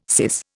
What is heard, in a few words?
"syce", Indian